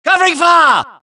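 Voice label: suppress, dialogue, Allies; mohaa; Mission 1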